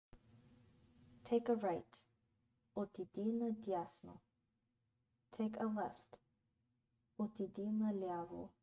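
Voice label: "Take a right, left", Bulgarian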